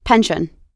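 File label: "pension", American English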